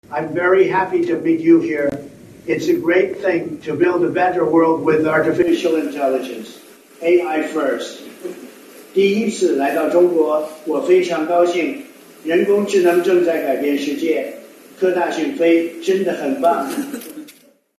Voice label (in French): Voix de trump en chinois; rfi; francais; Appel correspodant